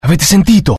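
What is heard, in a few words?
curious, Italian; mohaa; dialogue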